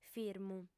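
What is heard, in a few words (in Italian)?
"firmu", lingua sarda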